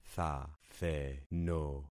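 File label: "thatheno", concatenated syllables; Affixing experiment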